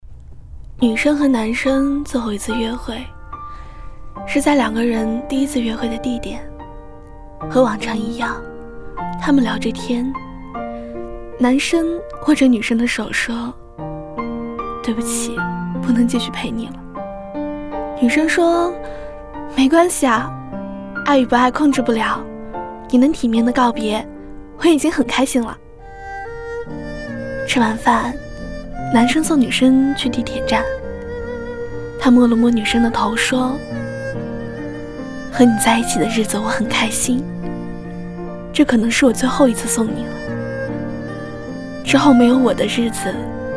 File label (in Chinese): 电台, 小故事